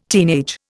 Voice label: "teenage", Indian

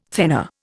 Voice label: "thenar", Indian

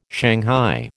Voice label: "shanghai", American

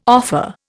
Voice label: "offer", Indian

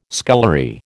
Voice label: "scullery", American

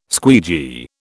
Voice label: "squeegee", British

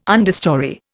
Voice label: "understorey", Indian

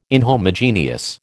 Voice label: "inhomogeneous", American

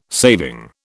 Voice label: "saving", British

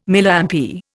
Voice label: "Milliampere", Indian

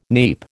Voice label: "neep", American

